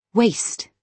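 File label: "waist", British English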